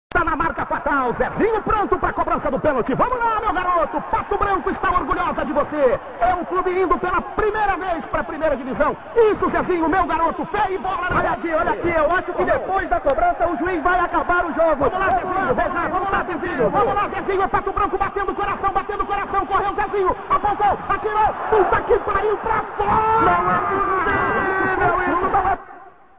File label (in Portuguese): penalti, Futebol Narracao; Esportes